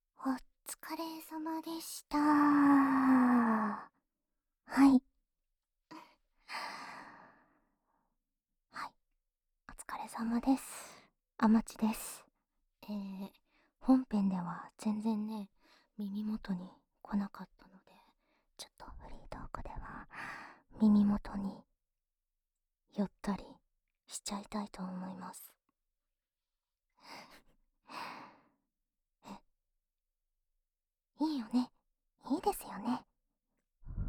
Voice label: 自由谈话; 特典音轨：SP自由谈话

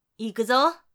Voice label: 要上咯, 女生; 俺娘

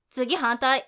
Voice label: 接下来是另一边, 女生; 俺娘

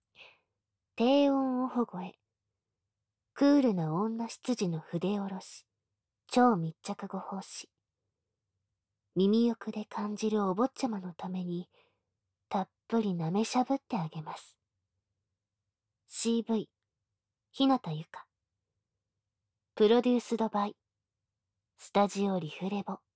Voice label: 报幕

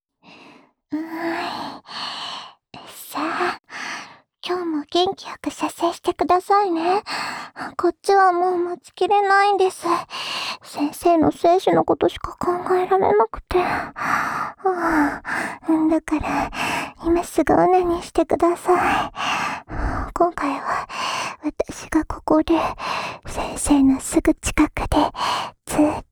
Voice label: 辅助自慰用 循环 右耳; 循环说小穴（右耳）

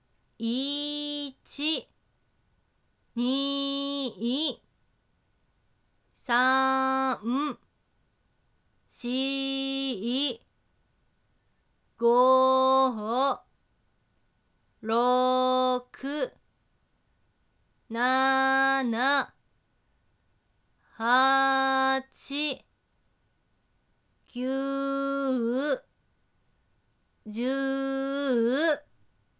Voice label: 慢10次, 女生为你进行健身计数的语音; 俺娘; 仅计数

